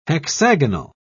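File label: "hexagonal", English, British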